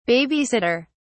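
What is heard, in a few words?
"baby sitter", British English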